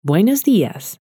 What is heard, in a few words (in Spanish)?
"buenos dias", Spanish